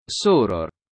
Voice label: Sister latin